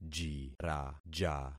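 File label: "jiraja", concatenated syllables; Affixing experiment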